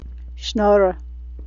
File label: "shnorror", yiddish